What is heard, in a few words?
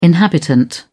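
"inhabitant", British English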